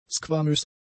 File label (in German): "squamös", German; adjectives